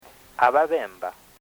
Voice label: "Ababemba", Bemba